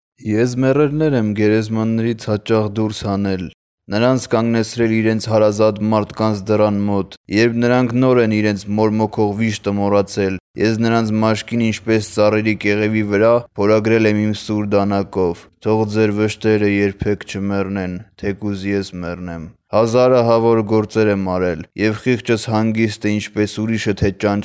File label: Georgian